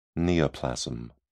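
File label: "neoplasm", British English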